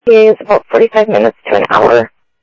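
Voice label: Sheriff East County, scanner audio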